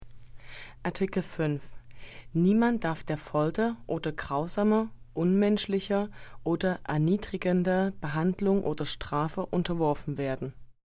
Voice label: German

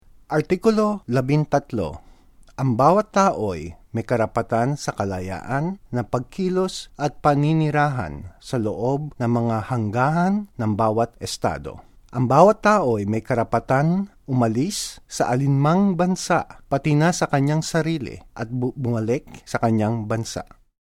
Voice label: Tagalog

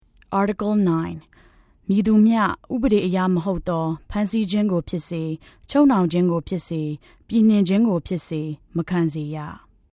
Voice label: Burmese

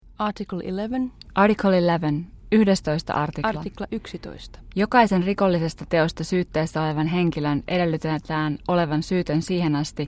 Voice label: Finnish

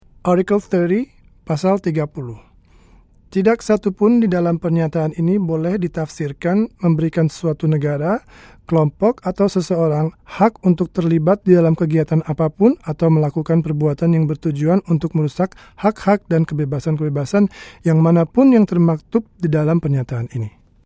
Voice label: Indonesian

